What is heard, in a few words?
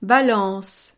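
"balance", French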